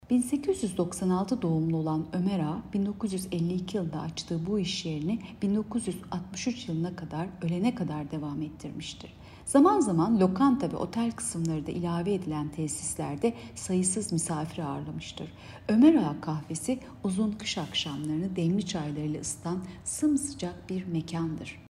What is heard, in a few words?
turkce